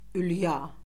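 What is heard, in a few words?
"ülya", Azerbaijani; Orfoqrafiya Lüğəti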